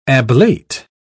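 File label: "ablate", British English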